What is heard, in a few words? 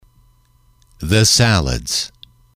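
"the salads", English, male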